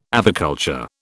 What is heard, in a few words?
"aviculture", British